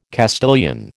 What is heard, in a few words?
"Castilian", American